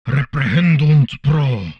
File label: humanoid male; Stellaris